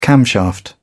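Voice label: "camshaft", British English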